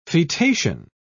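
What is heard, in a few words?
"fetation", British English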